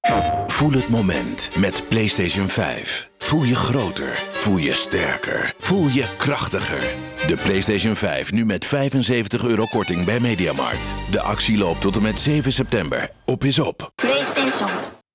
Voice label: radio commercial; playstation; mediamarkt